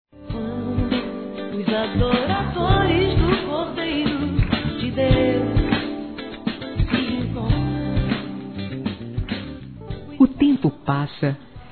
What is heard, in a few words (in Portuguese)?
Telemensagens, Aniversários, Fem; ANIV FILHO